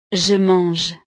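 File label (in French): "je mange", French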